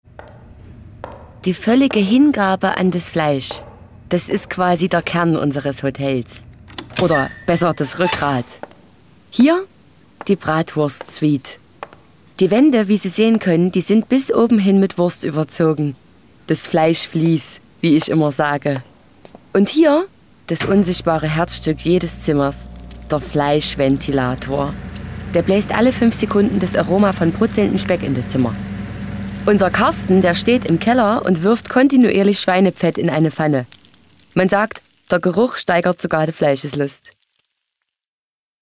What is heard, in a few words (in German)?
SAeCHSISCH; LOFT VOICES